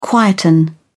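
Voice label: "quieten", British English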